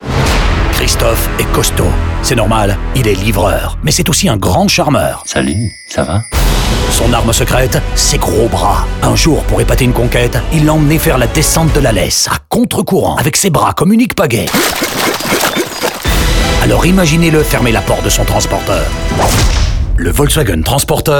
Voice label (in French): radio fr; vw gamme; lesse